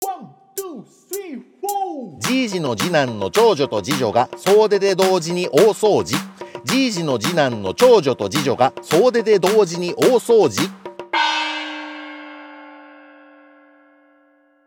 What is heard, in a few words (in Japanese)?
早口言葉リズム（中級編）